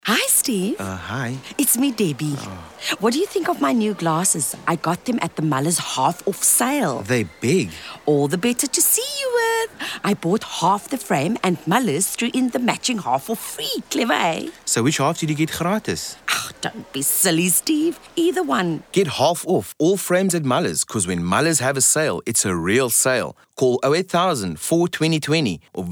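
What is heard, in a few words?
english South African female commercial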